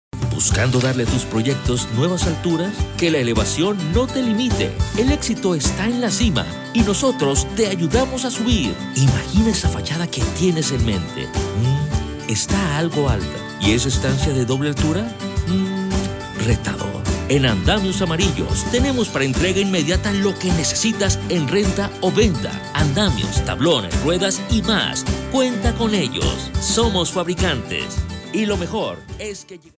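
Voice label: Latin American Spanish, male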